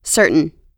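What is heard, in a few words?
"certain", US English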